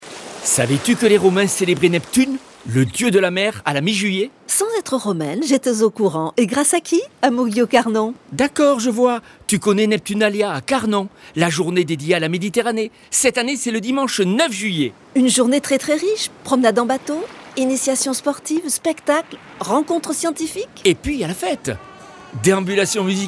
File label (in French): spot radio; mauguio carnon; neptunalia